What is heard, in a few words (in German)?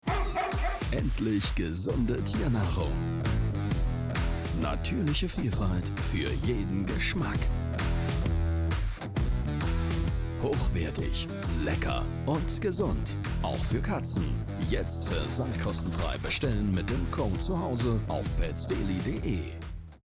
werbung; pets deli